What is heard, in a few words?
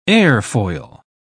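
"airfoil", British English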